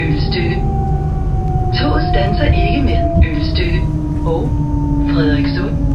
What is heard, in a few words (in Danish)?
oelstykke uden stop, toglyde